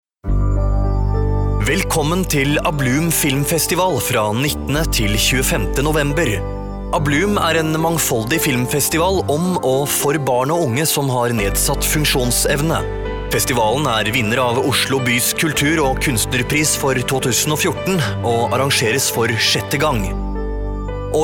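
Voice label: Norwegian male infomercial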